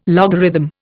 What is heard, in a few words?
"logarithm", Indian